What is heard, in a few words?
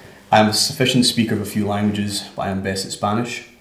interviewee male filler